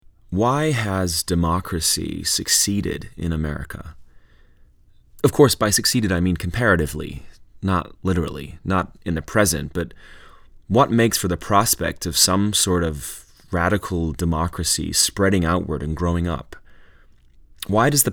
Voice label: US accent